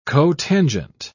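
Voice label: "cotangent", British English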